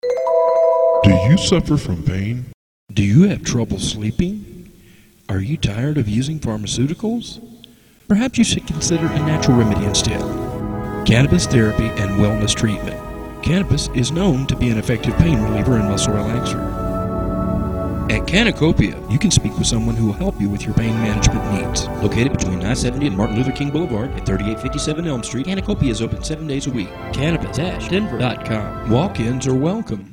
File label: TV Commercial; Cannacopia